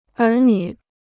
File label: "儿女", Chinese